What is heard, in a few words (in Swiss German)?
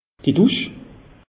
"dusch", alsace; meteo